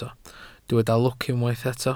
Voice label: "lwc", Welsh